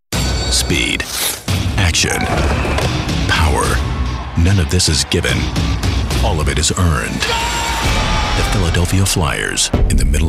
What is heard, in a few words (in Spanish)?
Locutor americano; eeuu